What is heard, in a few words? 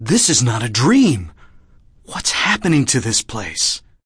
not a dream whats happening to place, MALE SPOKEN WORD; MALE MISC